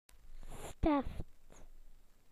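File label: "felt tip pen", British English; PictureDictionary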